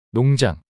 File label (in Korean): "농장" 단어 남자